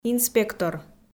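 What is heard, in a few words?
"инспектор", Komi, female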